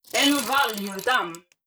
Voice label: robot human female greetings